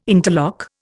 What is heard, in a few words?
"interlock", Indian